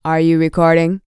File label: FEM SPOKEN; ILIO; Vocal Planet VOL-4; R&B & Dance